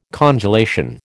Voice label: "congelation", American